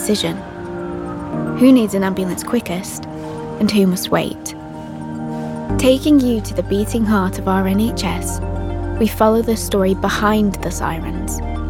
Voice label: Documentary, Manchester, Informative, Serious, Warm, Sci-Fi